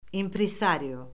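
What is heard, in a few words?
"impresario", Azerbaijani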